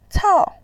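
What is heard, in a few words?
"cao", Mandarin Chinese, tone 4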